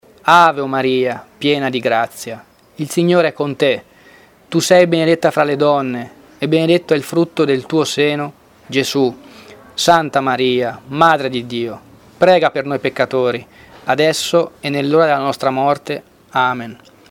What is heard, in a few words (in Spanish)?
ave maria italiano normal